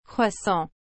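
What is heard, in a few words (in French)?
"croissant", French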